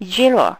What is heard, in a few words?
voice eng zero, keytone